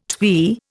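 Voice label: "twee", Indian English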